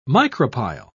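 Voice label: "micropyle", British English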